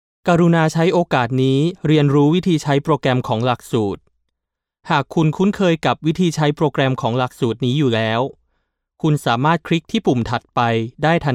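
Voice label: Thai, male, various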